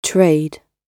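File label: "trade", British English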